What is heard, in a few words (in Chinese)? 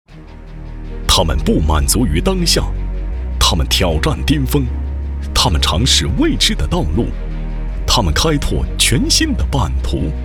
男 大气颁奖类 教育创新奖